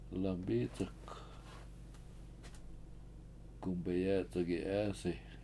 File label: lembIc71k gumbeyEc71gi7EEsi The Door Is Open, Washo